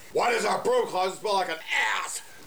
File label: Chat